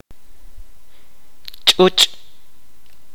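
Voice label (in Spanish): "frío", kaqchikel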